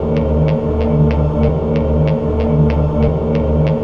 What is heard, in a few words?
voice boy, ethno, 125